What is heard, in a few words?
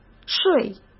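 "睡", Mandarin Chinese; chinese flashcards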